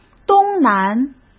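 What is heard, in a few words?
"东南", Mandarin Chinese; hsk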